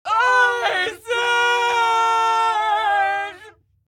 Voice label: Mom Vox Death